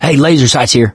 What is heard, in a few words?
laser sights, mechanic, survivor, voice; source engine; left 4 dead 2